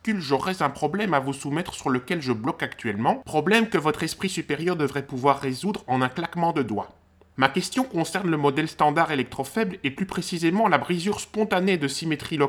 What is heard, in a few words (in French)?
Repondeur physicien